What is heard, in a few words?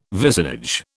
"vicinage", British